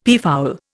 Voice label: "peafowl", Indian; Dictionary

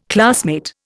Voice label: "classmate", Indian

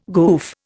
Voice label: "goof", Indian

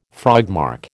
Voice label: "frogmarch", American

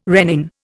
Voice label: "rennin", Indian

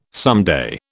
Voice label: "someday", British

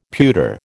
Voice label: "pewter", American

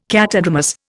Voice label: "catadromous", Indian

